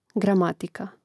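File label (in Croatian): "gramatika", hrvatski; mreznik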